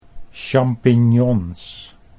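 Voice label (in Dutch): "champignons", Dutch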